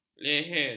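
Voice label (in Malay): "leher", Malay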